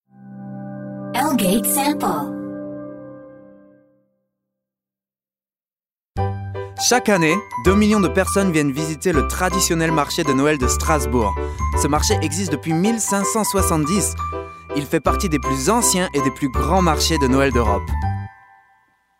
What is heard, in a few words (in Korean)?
프랑스 남 밝은 톤